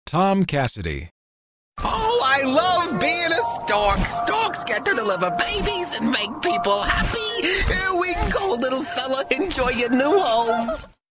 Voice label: quirky

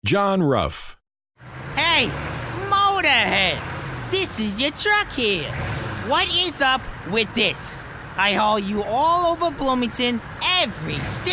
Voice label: NEW YORKER, New Yorker NYC

